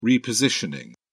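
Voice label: "repositioning", British English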